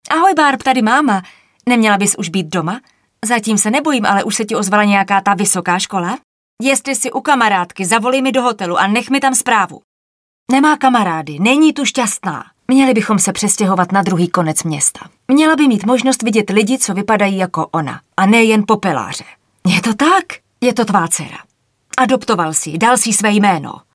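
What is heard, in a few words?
female Czech drama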